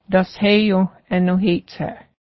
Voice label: give her the hat, Cayuga; dashe:yǫh ęnǫheˀtsäˀ

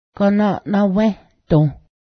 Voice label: melted, Cayuga; ganaˀnawę́hdǫh

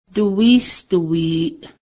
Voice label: "killdeer", Cayuga; duwísduwi:ˀ

